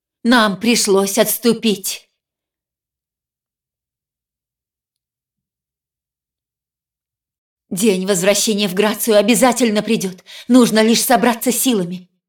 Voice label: cinematic voice, ru; lineage2